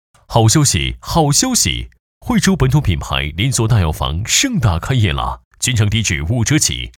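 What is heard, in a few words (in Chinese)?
男 激情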